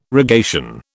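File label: "rogation", British English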